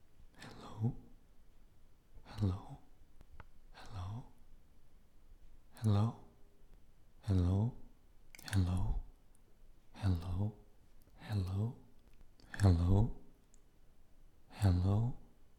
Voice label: Fearing Hello